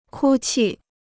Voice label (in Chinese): "哭泣", Chinese